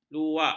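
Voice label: "luak", Malay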